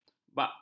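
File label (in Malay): "bakhtiar", Malay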